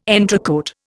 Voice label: "entrecÓte", Indian